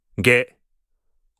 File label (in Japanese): "げ", Japanese